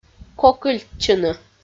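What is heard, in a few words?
"кокыльтчыны", Komi, female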